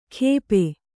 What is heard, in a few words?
"ಖೇಪೆ", Kannada